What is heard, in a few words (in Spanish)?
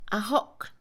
gancho, Mixe, Mujer; INALI